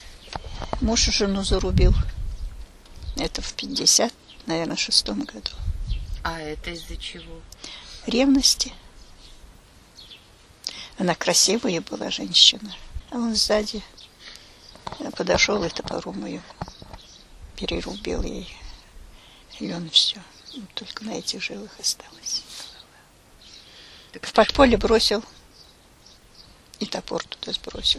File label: middle ob dialect; лён кратко